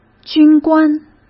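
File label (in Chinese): "军官", Mandarin Chinese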